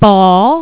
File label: "ball", Spanish